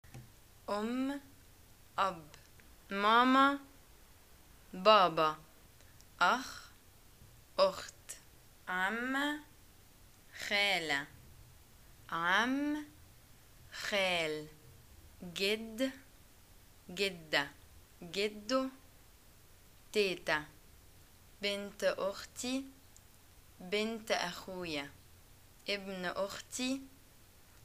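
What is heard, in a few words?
family, arabic, Egyptian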